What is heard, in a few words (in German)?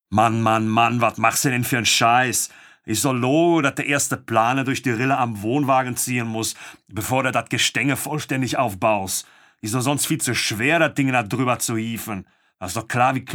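Ruhrpottprolet, Hörbuch; audible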